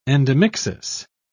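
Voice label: "endomixis", British English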